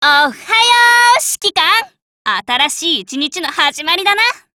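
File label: HELLO, Japanese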